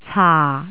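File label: "caa4", Cantonese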